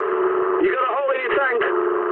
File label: eng tank damaged mood med, speech eng; War Thunder